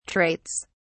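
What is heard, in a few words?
"traits", British English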